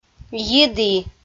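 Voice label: "йиді" Komi, female; audio dict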